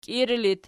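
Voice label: "kiiri" locative, Archi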